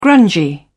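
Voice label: "grungy", British English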